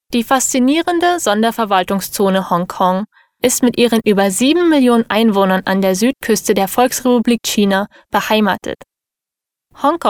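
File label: German female corporate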